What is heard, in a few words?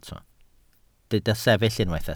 "sefyll", welsh